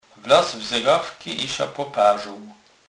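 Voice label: "zegawka", Polish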